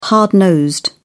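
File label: "hard-nosed", British English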